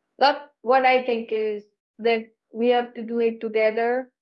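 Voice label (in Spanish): Voice Acting es F, Spanish, female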